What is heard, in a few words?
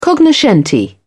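"cognoscenti", British English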